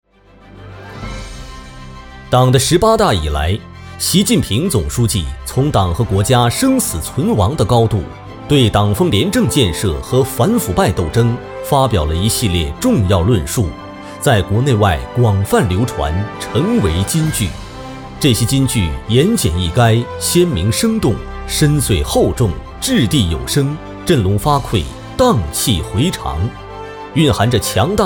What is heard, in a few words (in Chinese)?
男 党政; 十八大